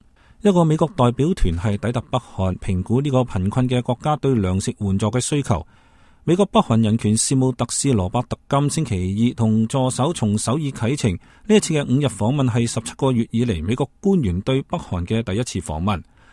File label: US Korea, cantonese